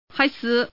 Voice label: woman; mahjong paohuzi; youxian